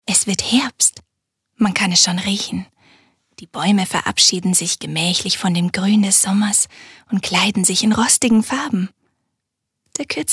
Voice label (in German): Schauspiel Sanft